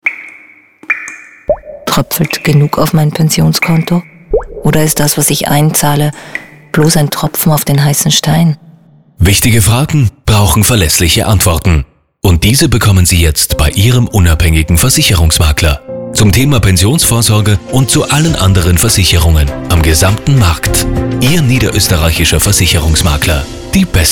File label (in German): Werbespot; Pensionskonto